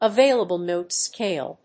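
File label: "Available Note Scale", English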